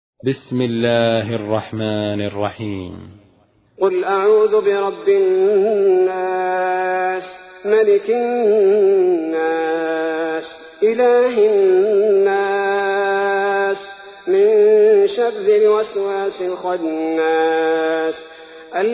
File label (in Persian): ترتیل سوره ناس; الثبیتی